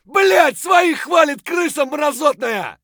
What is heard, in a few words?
rat, voice; Escape from Tarkov